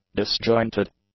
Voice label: "disjointed", English

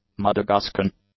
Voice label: "Madagascan", english; adjectives

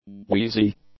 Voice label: "wheezy", english; adjectives

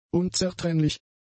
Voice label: "unzertrennlich", German; adjectives

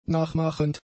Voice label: "nachmachend", German; adjectives

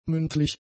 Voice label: "mündlich", German; adjectives

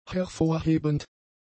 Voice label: "hervorhebend", German; adjectives

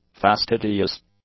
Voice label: "fastidious", english

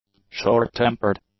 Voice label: "short-tempered", English; adjectives

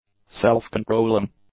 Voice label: "self-controlling", English; adjectives

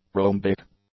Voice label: "rhombic", English; adjectives

